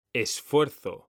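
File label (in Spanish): "esfuerzo", Spanish; mekanta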